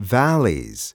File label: "valleys", spanish